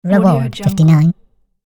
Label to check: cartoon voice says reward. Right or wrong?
Right